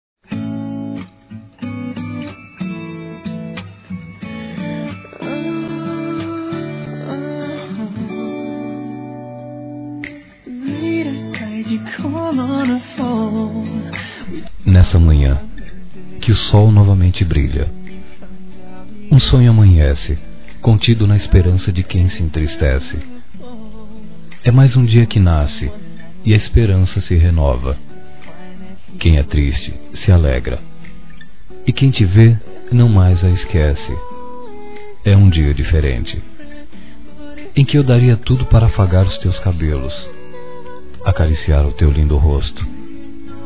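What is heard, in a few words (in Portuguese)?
SAUDADES, Masculino; Telemensagens; Dia Dos namorados; Telemensagens 2017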